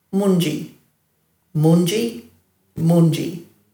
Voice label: "mundji", Dhurga

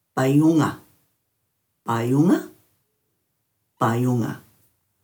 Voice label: "bayunga", Dhurga

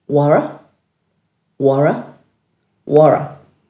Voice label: "wara-", Dhurga; dulagaal

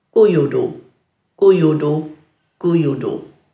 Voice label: "guyudu", Dhurga

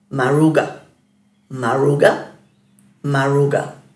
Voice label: "maruga", Dhurga; live

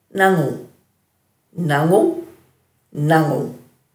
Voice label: "nangu-", Dhurga

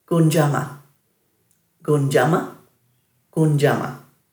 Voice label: "gundjama", Dhurga

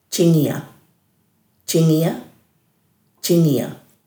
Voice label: "djingiya", Dhurga

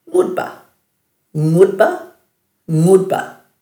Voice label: "ngudba-", Dhurga

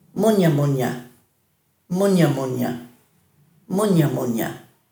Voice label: "munjamunja", Dhurga

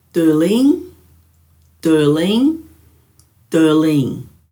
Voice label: "dhurliing", Dhurga

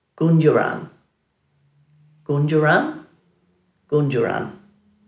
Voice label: "gundjiran", Dhurga; dulagaal

